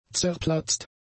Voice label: "zerplatzt", German; adjectives